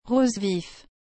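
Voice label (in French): "rose vif", French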